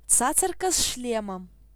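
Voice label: helmeted guineafowl, Belarusian; animals; birds; tuxpaint-stamps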